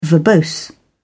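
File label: "verbose", British English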